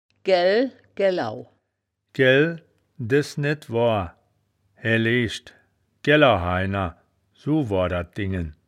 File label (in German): "gaell gaellau", wittlicher